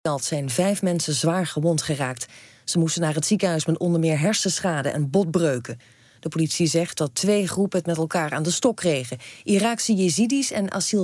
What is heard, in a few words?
Dutch 1 minute